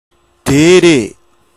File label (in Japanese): "deeree", hougen; でぇれぇ; "ぼっけぇ"の同意語。; 方言